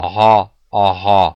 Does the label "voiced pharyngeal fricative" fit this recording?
Yes